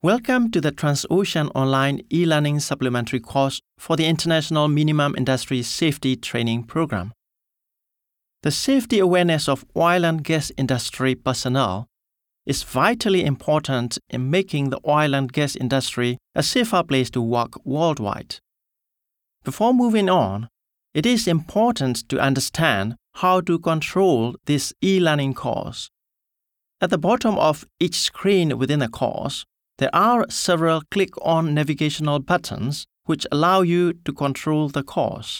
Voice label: Accented English

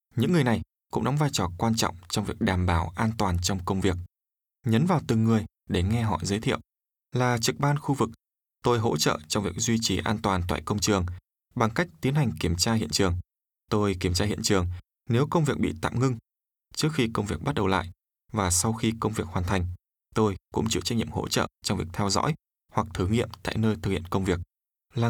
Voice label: Vietnamese elearning

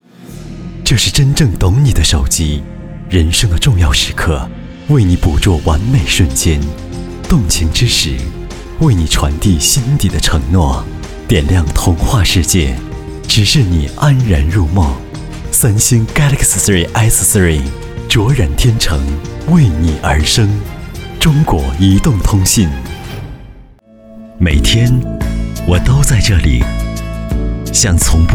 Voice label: Chinese Mandarin General

